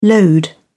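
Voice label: "lode", English, British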